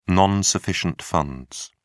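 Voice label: "nsf", British English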